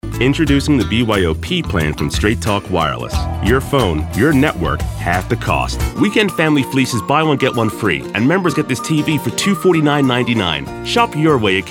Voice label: 30s40s Male African American Commercial